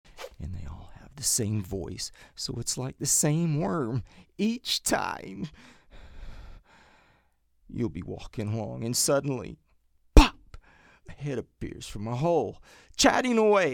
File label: Animation characters